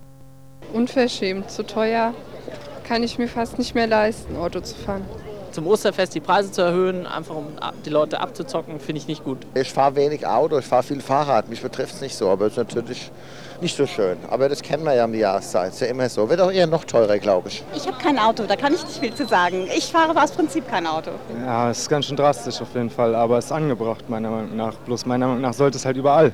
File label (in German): Straßenumfrage Frankfurt; hr1; Markt; Oelpreisanstieg zu Ostern